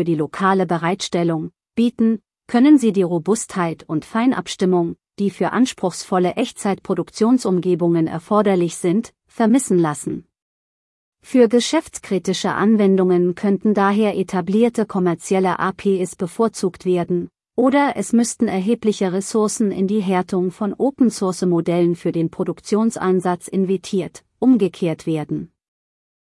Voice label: whisper, KI Stimmen